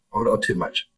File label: Voice Acting, es